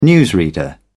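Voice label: "newsreader", British English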